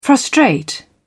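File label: "frustrate", British English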